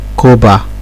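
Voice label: "koba", naija, male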